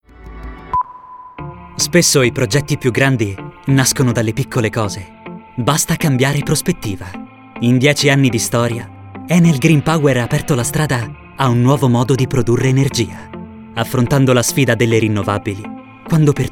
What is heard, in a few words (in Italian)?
Promo Voce; voiceover